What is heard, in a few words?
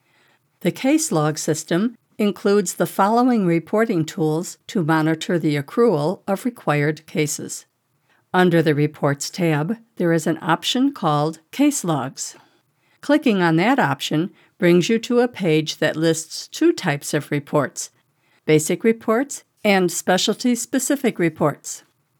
e-learning